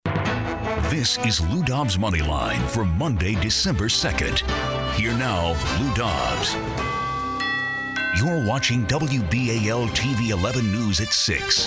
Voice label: radio open, radio imaging

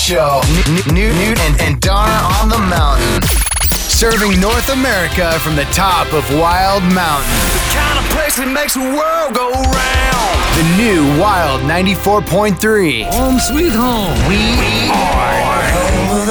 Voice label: radio male country; radio imaging

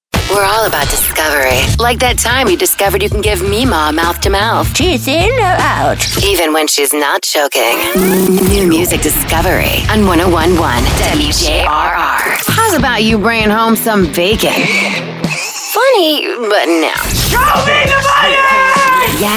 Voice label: radio imaging women rock

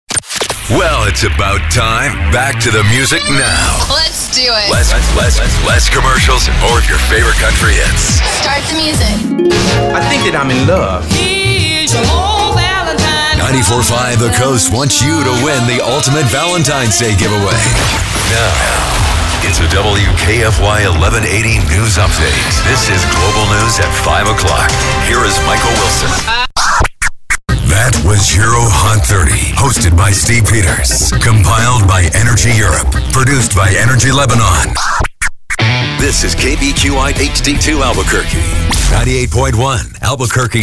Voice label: radio men

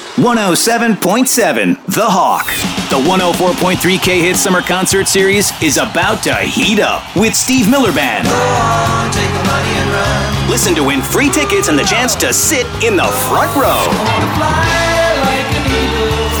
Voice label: radio classic hits, radio imaging

